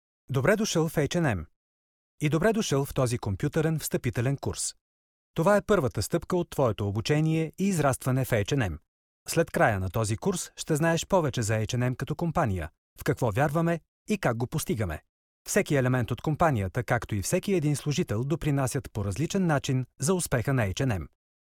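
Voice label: Bulgarian, male, e-learning; voice demos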